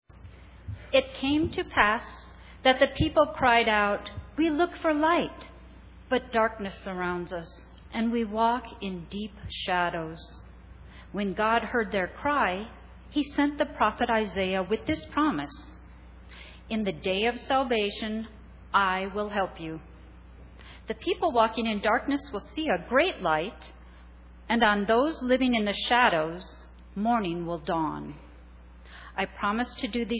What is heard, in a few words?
Narration; Cantata